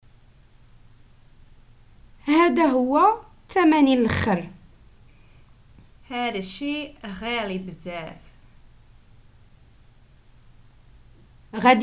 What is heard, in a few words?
prompts, Moroccan Arabic